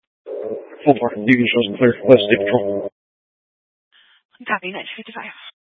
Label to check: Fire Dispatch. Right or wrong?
Right